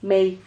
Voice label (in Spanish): "mey", Silabas